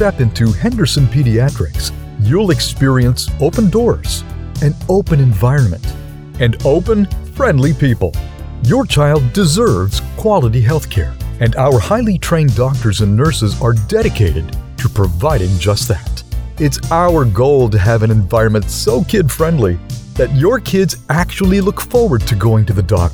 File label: Medical with Music Bed